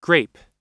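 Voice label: "grape", American English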